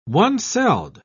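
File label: "one-celled", English, British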